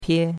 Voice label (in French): "pie1", chinois; Cours de Chinois